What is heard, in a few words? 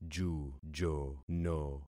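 "jujono", concatenated syllables; Affixing experiment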